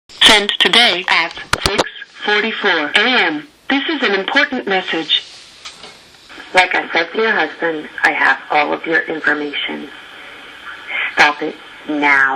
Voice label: Phone Msg